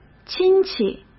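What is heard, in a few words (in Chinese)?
"亲戚", Mandarin Chinese; hsk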